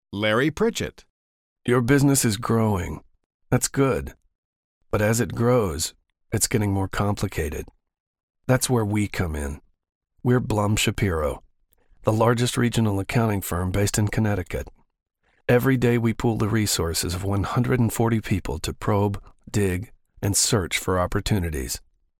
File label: SERIOUS